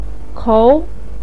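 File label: "kou", Mandarin Chinese, second tone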